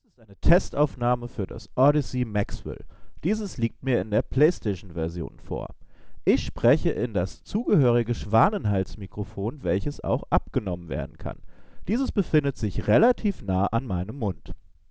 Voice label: audeze maxwell mikrofon, Gaming Headset

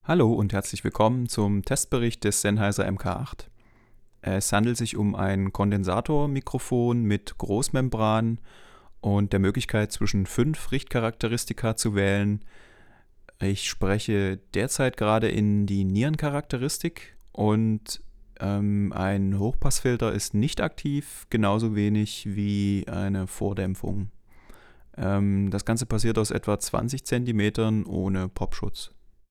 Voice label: sennheiser mk 8 sprache

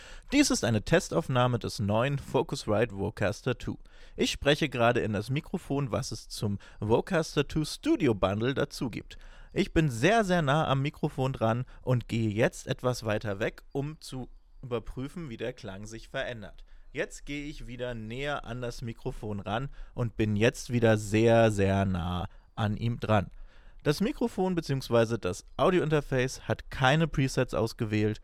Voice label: vocaster ohne presets; Focusrite Vocaster Two

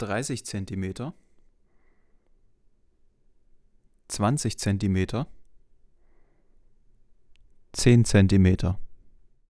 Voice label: nahbesprechung filter, Sennheiser MK 8

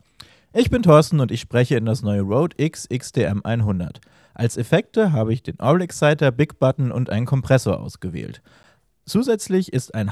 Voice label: ohne popfilter verstaerkt; rode x xdm

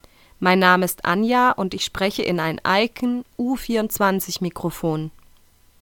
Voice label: stimme f

